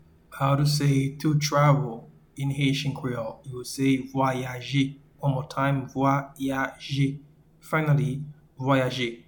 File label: "to Travel" in Haitian Creole, "Vwayaje"